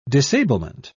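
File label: "disablement", British English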